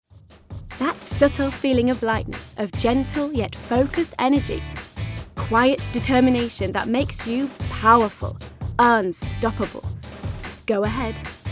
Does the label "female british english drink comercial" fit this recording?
Yes